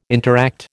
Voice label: "interact", American